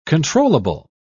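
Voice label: "controllable", British English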